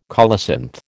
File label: "colocynth", American